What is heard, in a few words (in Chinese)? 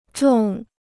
"种", Chinese